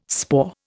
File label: "spoor", Indian